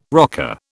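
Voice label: "rocker", British English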